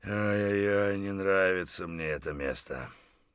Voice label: mutter, voice; Escape from Tarkov